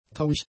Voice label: "tauig", German; adjectives